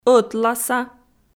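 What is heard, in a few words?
"ӧтласа" Komi, female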